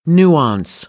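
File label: "nuance", American English; AmericanBritish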